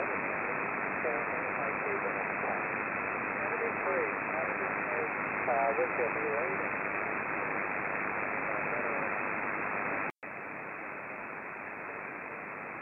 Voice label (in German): Amateurfunk USB; 28650khz